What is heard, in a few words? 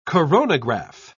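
"coronograph", British English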